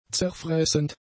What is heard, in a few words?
"zerfressend", German; adjectives